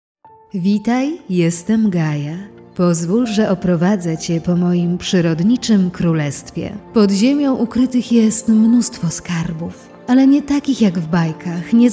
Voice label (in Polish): narracja